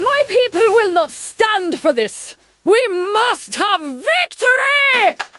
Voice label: upset; fertig classic games